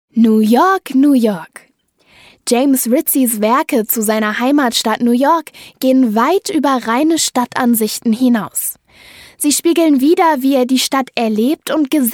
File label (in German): Audioguide